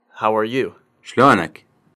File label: iraqi, How are you (masculine) shlo-nek شلونك